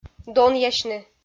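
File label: "донъясьны", Komi, female; kpv audio dict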